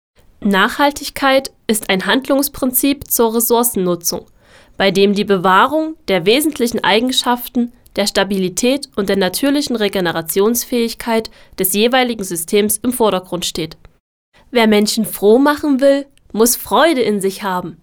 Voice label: female German

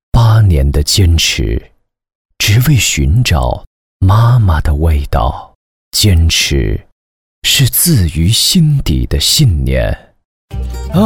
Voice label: male mandarin china

